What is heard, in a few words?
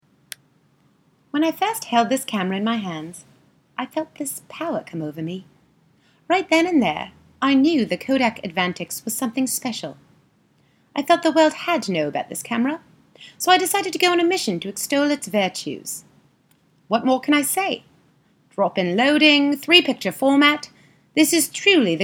british accent; kodak